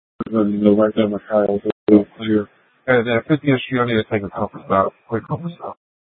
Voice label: Light Rail Ops, scanner